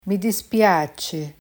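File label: "mi dispiace", Italian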